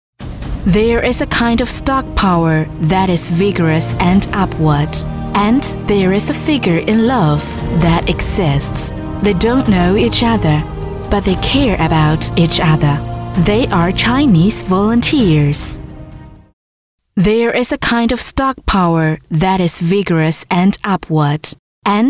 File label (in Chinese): 女 英文专题 大气